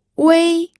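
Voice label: "wei1", Mandarin Chinese, pinyin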